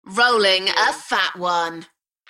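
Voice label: UK female, DJ vocals; beanstalk-audio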